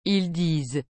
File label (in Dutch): "ils disent", frans; dire